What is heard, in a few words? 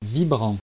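"vibrant", French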